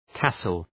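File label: "tassel", English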